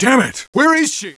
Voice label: "Dammit, where is she?!" Low Male Voice; Knights